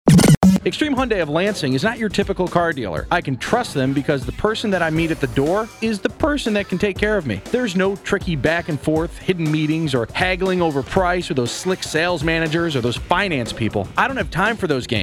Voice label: Commercial